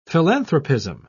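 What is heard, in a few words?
"philanthropism", British English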